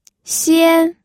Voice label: "xian1", pinyin